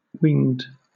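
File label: "whinged" English, British; pronunciations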